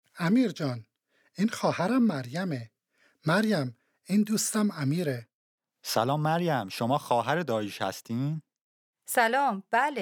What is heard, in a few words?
Dialogue lesson Farsi